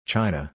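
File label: "assuming", US English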